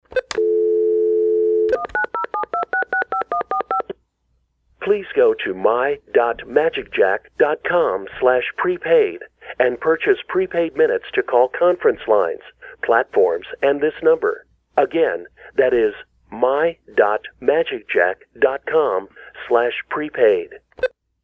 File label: prepaid magicjack announcement